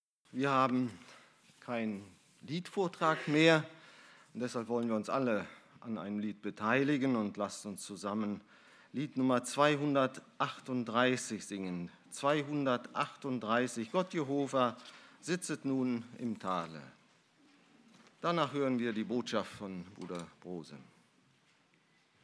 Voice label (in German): Ansage; Christus ist mein Leben